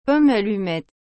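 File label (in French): "pommes allumettes", French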